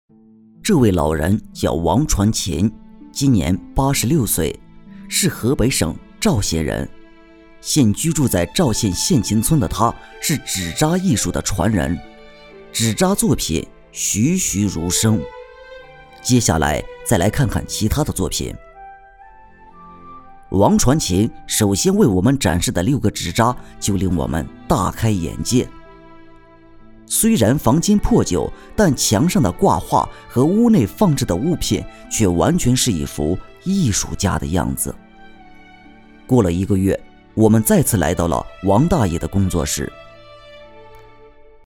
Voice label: 纪录片; 纸扎

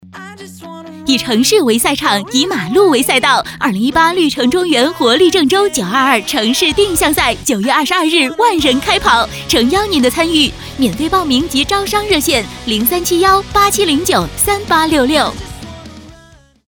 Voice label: 激情活力; 绿城中原活力郑州开跑

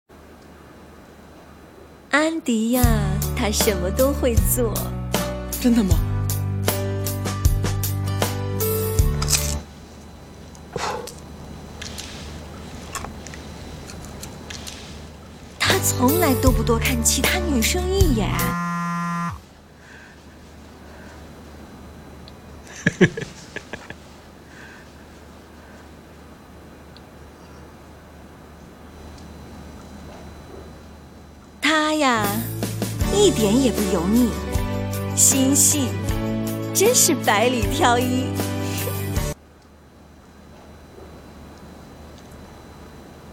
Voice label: 形象片 年轻搞笑; 普通话; 找个老公想退货

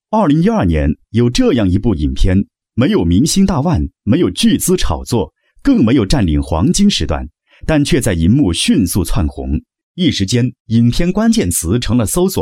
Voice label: 专题片; 宣传片 > 电影电视预告; 舌尖上的秘密

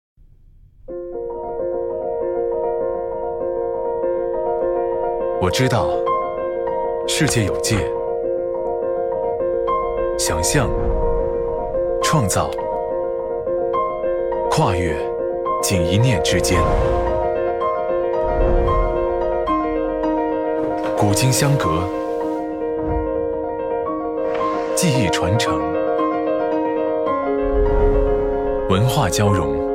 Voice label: 广告 珠宝; 卡地亚有界之外

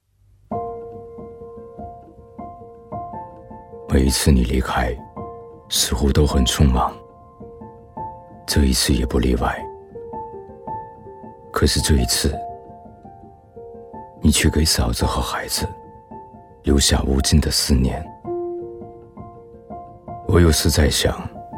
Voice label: 模仿类 台湾腔; 广告 > 模仿

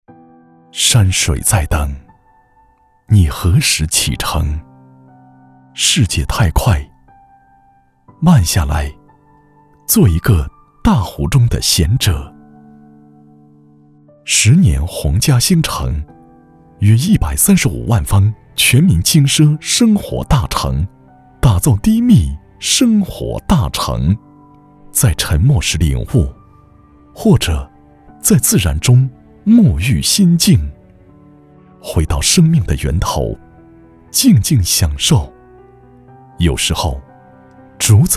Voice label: 优雅宣传; 鸿嘉星城; 建筑房产

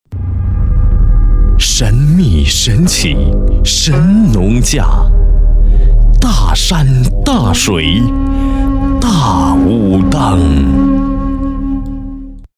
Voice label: 广告; 央视广告; 神农架; 栏目导视

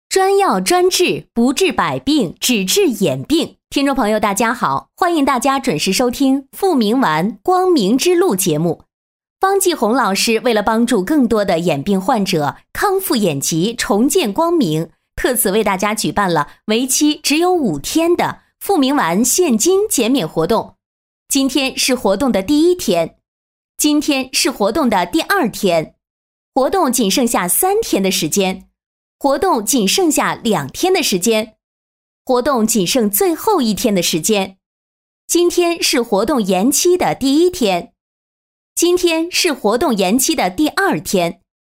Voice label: 广播 医药节目串词自然亲切; 栏目导视

